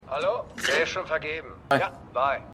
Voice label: Telefonstimme; Shameless

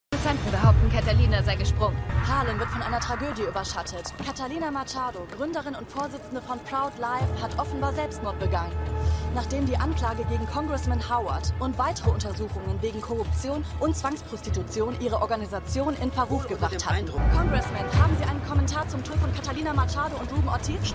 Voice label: Reporterin, Stimmproben; Dawn Yanek; LO SVU

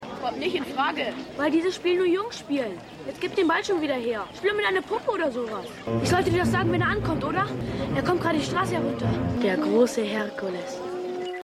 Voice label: Dorfjunge; Hercules

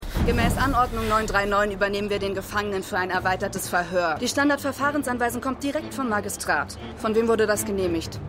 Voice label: Soldatin, Stimmproben; Star Trek Picard

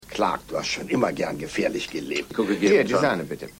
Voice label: Mann

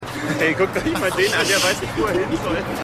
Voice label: Schueler; The Wire

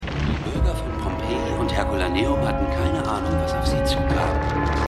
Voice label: Dokusprecher; Keine Gnade Fuer Dad

